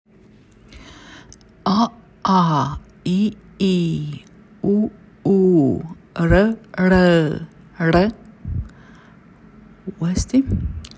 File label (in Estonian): täishäälikud, sanskriti; lühikeste ja pikkade täishäälikutega